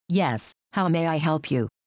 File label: computer how may i help; time-lab